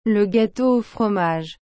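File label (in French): "le gâteau au fromage", French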